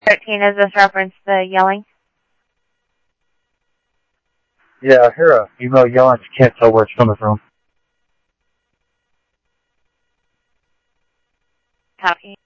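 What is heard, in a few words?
police radio scanner traffic, Mesa Simulcast; MesaPoliceDep; MESA PD 1 Fiesta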